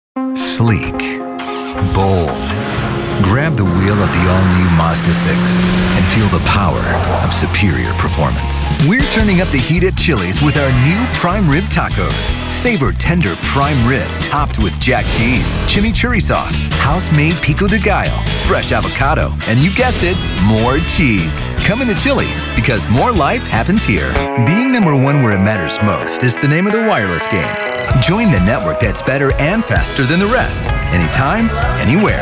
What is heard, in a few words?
Commercials